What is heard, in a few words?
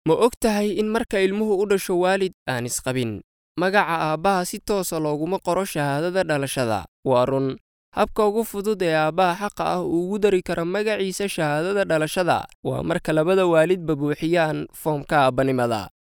Somali M